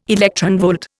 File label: "electronvolt", Indian